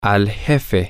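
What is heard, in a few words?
Spanish, to the boss masculine, male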